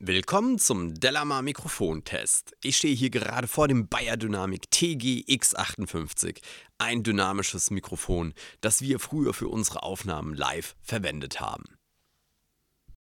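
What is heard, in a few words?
speech male, beyerdynamic TG X58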